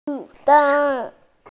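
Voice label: "yi sheng", Mandarin Chinese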